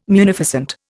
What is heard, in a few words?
"munificent", Indian